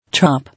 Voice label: "Chop", English